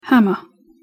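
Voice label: "hammer" pronunciation English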